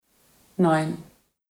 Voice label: luxemburgisch

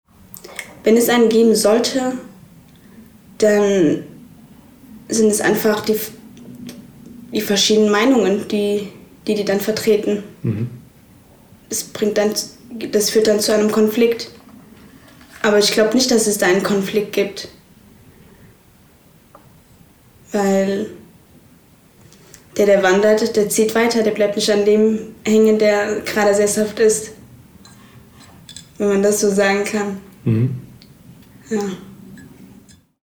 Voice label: kurdisch

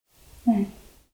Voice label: japanisch

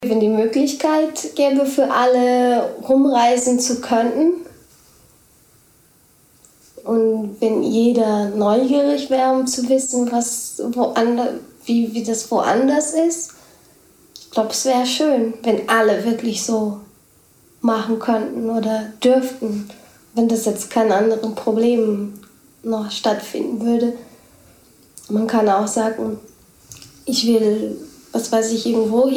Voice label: portogiesisch brasilien

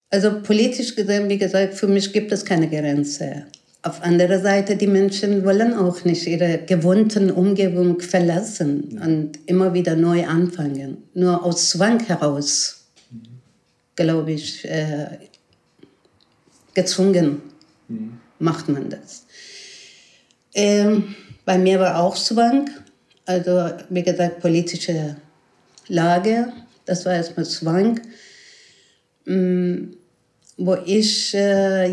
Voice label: persisch

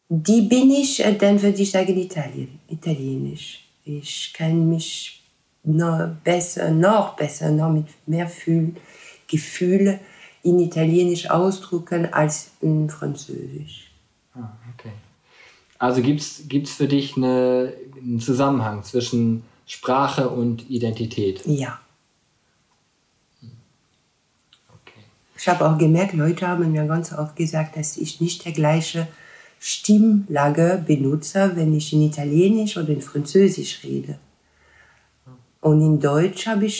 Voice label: franzoesisch

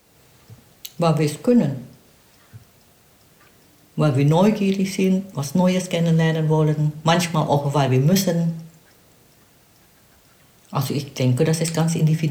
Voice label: indonesisch

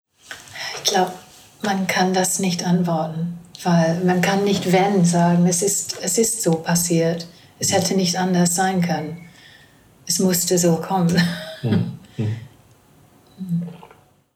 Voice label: englisch deutsch

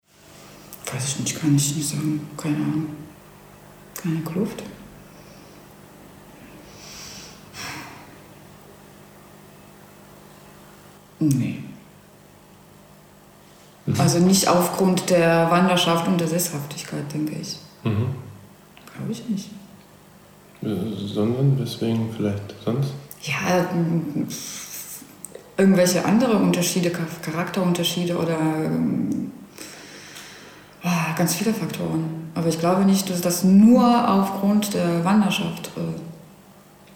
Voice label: polnisch

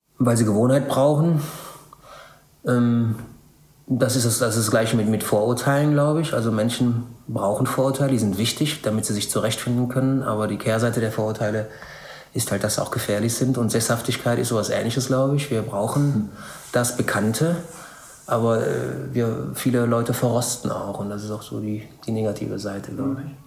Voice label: kroatisch

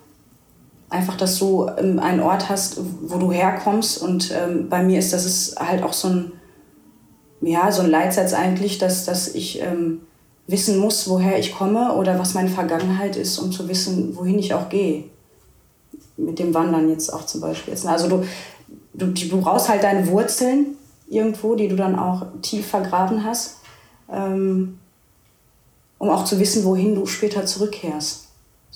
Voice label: tigrinya eritrea

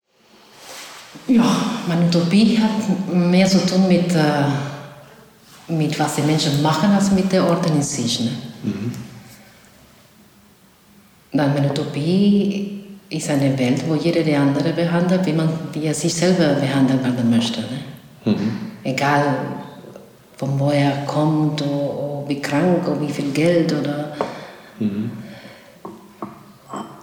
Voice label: spanisch

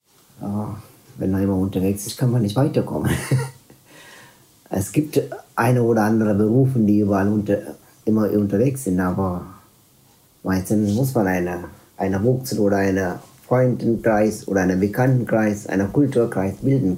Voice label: tamilisch sri lanka

